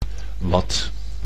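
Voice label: "wat", Dutch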